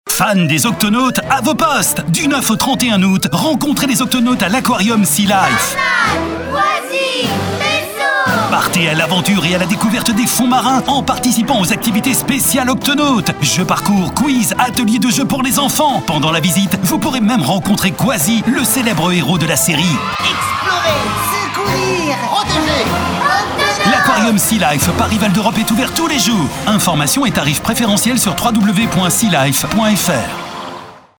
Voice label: SPOT Radio; SEA LIFE; Octonauts